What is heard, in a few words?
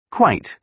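"quite", British English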